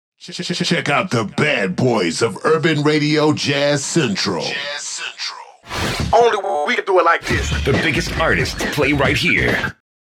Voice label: liner; Check Out TheBadBoysOfUrbanRadioJazzCentral; DJ Lord Yoda X Jazz Central Liner